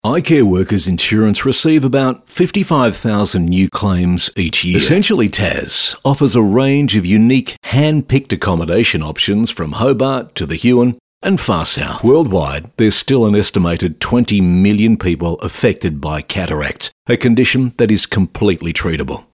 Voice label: Natural